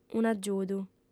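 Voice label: "un'agiudu", Sardinian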